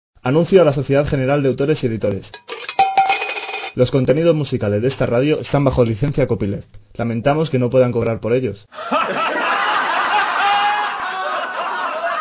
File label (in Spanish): anuncio SGAE hombre